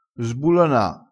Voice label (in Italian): "sbulona", crespinese